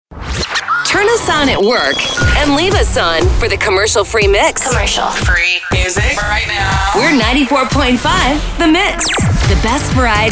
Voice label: radio hot ac, radio imaging

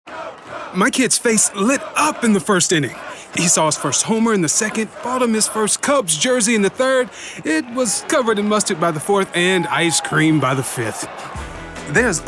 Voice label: commercial men

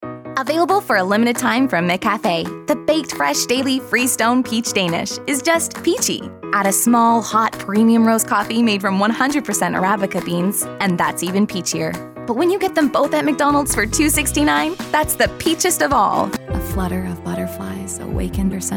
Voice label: commercial women

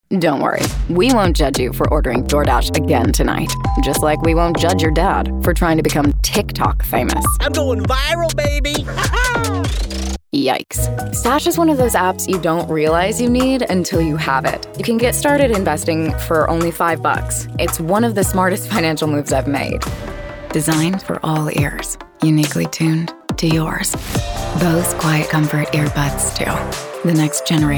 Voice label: commercial women

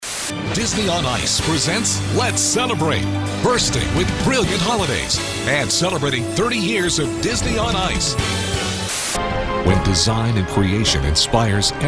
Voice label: commercial men

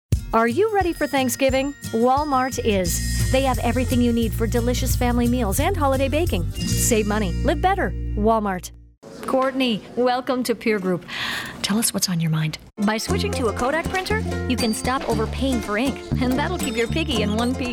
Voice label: commercials women, commercial women

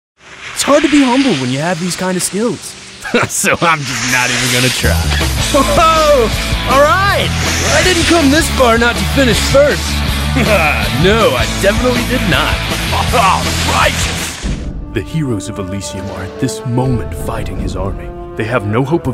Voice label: animation interactive

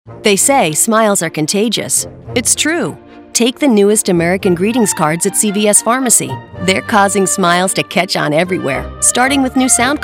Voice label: commercial female; commercial women

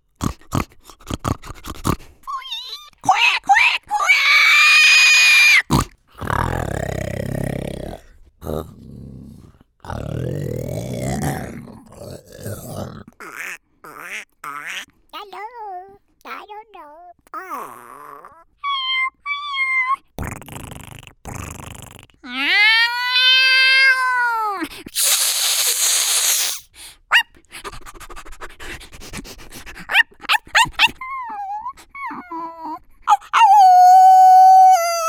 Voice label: animation women creature

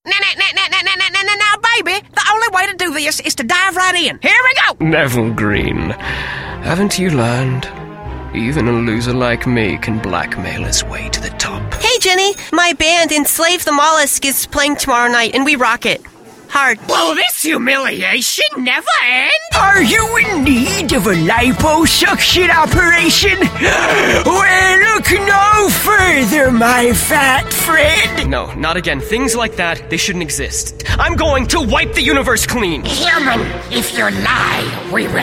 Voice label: animation

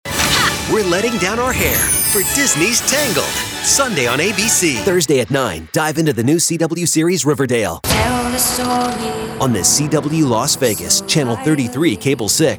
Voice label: promo male

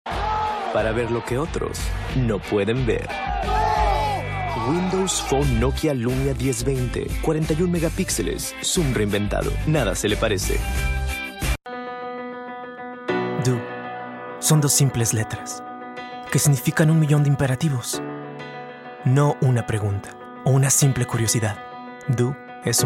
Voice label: languages spanish commercial

